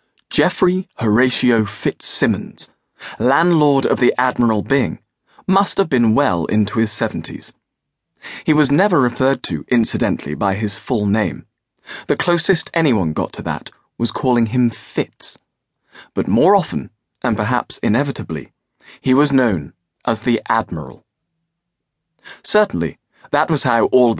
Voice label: Audiobook